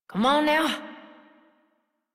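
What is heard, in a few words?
horse start adult, English; Warframe; Duviri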